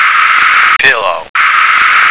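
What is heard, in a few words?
"pillow", American English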